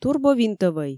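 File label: "турбовинтӧвӧй", Komi, female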